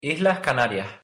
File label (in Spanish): Islas Canarias s aspiradas